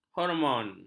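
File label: "hormon", Malay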